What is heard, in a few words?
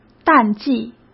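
"淡季" Mandarin Chinese; chinese flashcards; hsk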